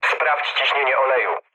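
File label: damaged oil, mood low, Polish speech; War Thunder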